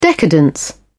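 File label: "decadence", English, British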